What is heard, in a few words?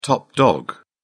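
"top dog", British English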